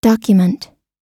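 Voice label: "document", American English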